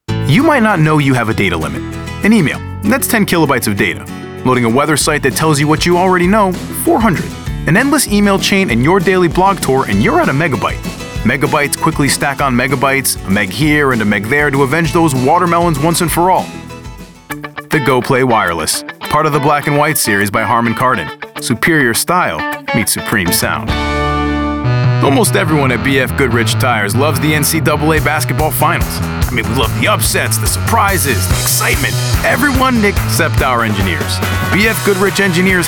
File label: commercial, men